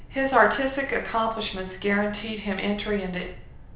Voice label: room speech 8kHz